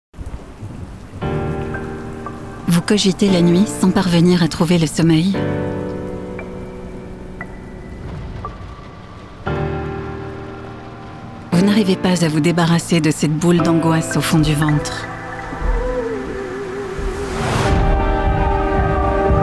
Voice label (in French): pub voix off; mindler